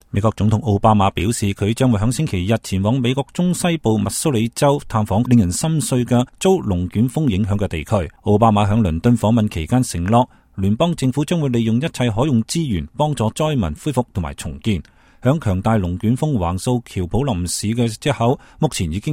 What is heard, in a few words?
Cantonese US Weather